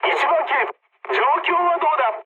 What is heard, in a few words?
jp chat, speech jp